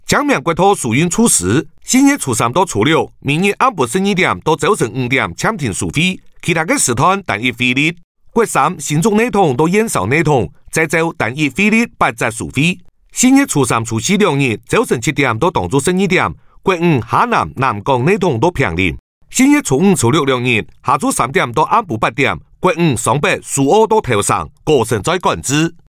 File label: Hakka Male; Taiwan